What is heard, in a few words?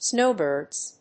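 "snowbirds", English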